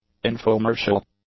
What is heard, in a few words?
"infomercial", English; nouns